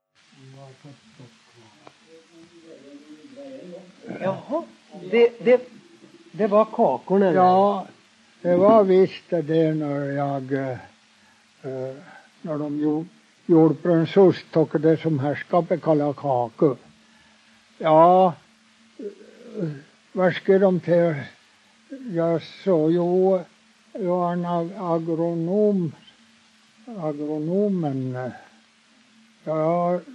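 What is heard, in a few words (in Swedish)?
Huddunge Uppland, dialekter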